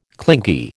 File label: "clingy", American